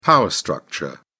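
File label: "power structure", English, British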